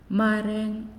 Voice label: "Many", Naasioi

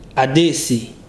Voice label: "rice", Ibibio

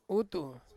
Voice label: "utu" louse, Ansus

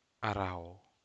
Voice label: Aneityum made of branches

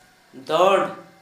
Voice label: penalty, Gta, Dialect: Hill; ɖonɖ

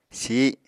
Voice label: "sih", Apatani

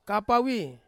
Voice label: "kapawi", Ansus; cuscus

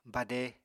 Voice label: "bade", Apatani

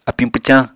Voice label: "apiñ pwcañ", Apatani

